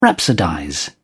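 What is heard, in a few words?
"rhapsodize", British English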